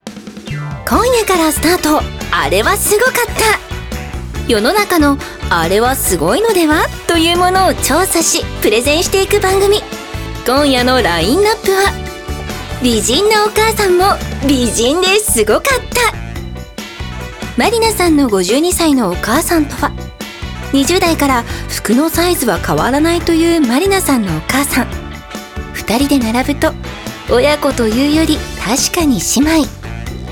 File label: narration